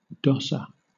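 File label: "dosser", British English; pronunciations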